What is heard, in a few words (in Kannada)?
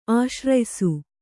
"ಆಶ್ರಯಿಸು", Kannada